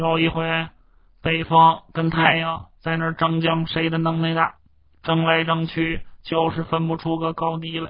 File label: Beijing mono